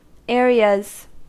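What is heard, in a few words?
"areas", US English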